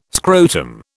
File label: "scrotum", British